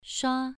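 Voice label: "shua" Mandarin Chinese, second tone, pronunciation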